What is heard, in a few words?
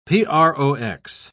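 "prox.", British English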